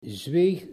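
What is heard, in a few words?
"zweeg", Dutch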